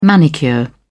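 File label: "manicure", British English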